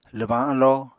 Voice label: "lwbañ alló", Apatani

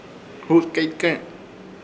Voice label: "Hutkeykẽk" pez, Ishir Chamacoco

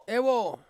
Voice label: "wo", Ansus; to paddle

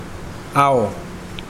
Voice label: Mokilese mouth

